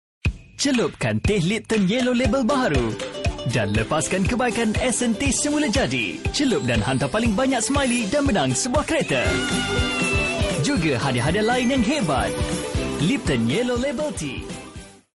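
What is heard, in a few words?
male, medium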